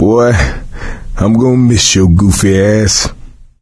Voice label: survivor mourn, voice; Left 4 Dead 2; coach